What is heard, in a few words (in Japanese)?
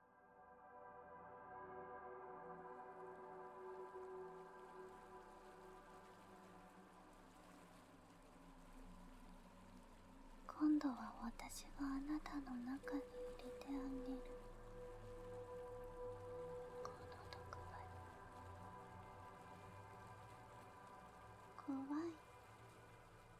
ドS耳かき